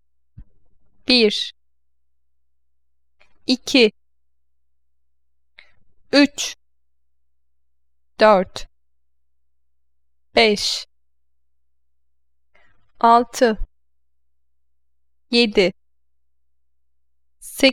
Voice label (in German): Tuerkisch